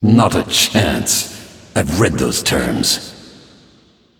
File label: Male Ethereal Emote MIRROR GREETINGS